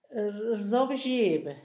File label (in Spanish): "se arrodilla", Zapoteco Asunción Ocotlan; INALI; Haspelmath